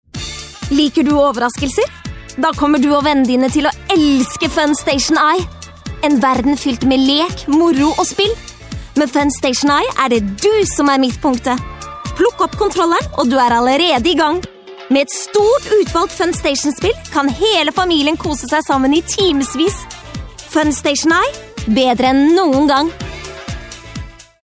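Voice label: norwegian female commercial; voice demos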